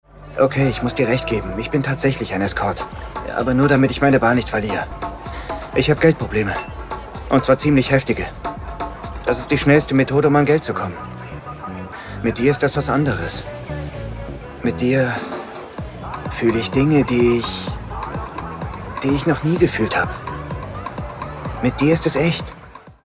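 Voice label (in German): Reumütig gefühlvoll; F*ck die Liebe nochmal; Javier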